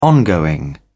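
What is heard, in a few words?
"ongoing", British English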